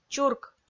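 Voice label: "чурк", Komi-Zyrian, female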